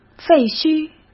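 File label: "废墟", Mandarin Chinese; hsk; chinese-flashcards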